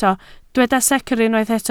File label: "secr", Welsh